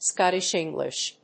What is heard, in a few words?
"Scottish English", English